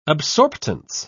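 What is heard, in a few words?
"absorptance", British English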